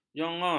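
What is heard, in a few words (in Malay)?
"jongor", Malay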